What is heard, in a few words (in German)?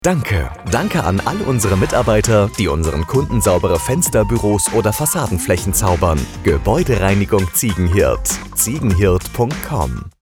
Funkspot Gebäudereinigung; Gebäudereinigung Ziegenhirt GmbH